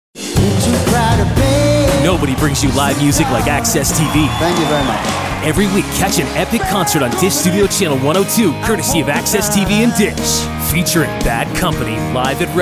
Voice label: Habillage Vorschauvideos; NATIVE PROFISPRECHER